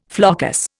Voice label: "floccus", Indian